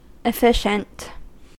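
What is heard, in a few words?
"efficient", US English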